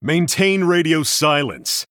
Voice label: Male Human In Game Emote Response; Ichman